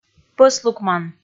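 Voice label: "пӧслукман", Komi, female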